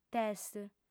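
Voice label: "test", lingua sarda, female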